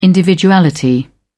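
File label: "individuality", British English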